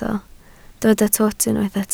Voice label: "twt", Welsh